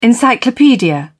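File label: "encyclopedia", British English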